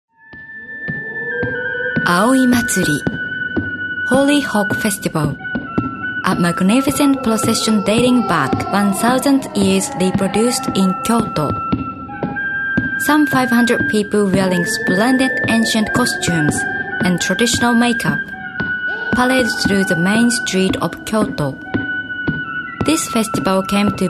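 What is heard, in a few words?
Japanese English, female, narration; accent of japan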